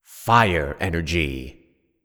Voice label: Fire Energy Voiceover